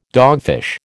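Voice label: "dogfish", American English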